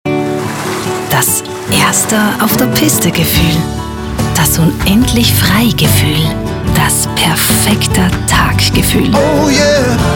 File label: Radio